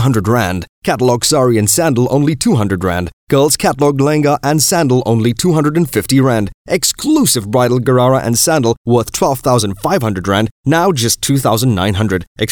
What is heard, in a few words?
Fashion Commercial